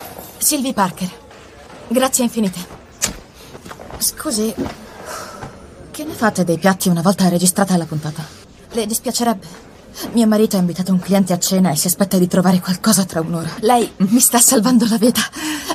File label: ITALIAN VOICES Dubbing